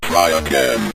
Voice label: try again, synth voice; Kenney's Sound Pack